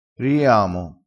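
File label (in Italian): "riiamo", vocabolario dialettale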